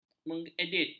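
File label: "mengedit", Malay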